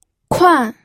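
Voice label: "kuan", fourth tone, pinyin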